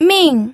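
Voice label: "ming", Mandarin Chinese, fourth tone; pinyin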